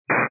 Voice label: 122 725MHz, scanner radio